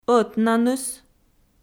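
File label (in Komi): "ӧтнаныс", Komi, female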